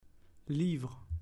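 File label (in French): "Livre", French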